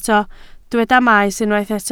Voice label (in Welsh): "maes" Welsh